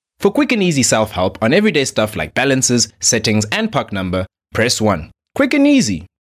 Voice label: Self Service IVR; IVR (Interactive Voice Response) or Auto-Attendant message; voice recording